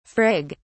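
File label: "frig", British English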